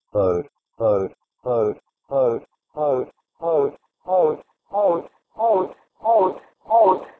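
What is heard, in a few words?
Proto-Indo-European bhoud to Armenian but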